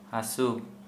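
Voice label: pain, Santali, male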